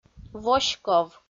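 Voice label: "воськов", Komi, female; audio dict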